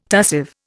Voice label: "tussive", Indian